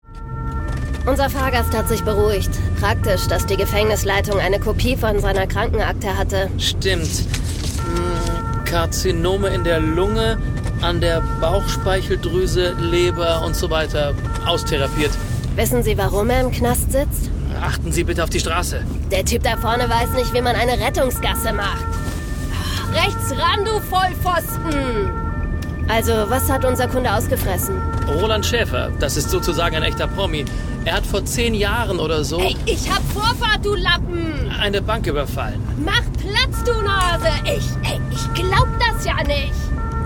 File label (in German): Hoerspiel Kuestenkrimi